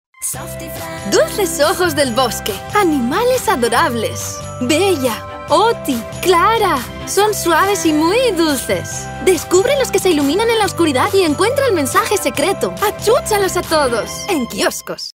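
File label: Spanish female TV commercial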